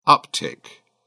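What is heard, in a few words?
"uptick", British English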